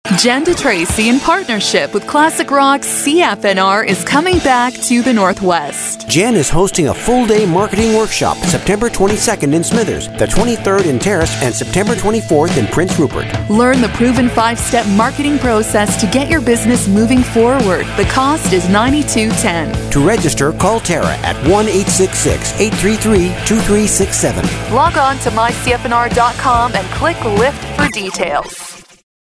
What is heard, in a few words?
Promo